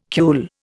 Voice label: "cule", Indian